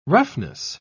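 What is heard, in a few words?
"roughness", British English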